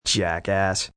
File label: Jackass, Attitude, male: 'Jackass', annoyed, (human voice speaking); Talking; VoiceBox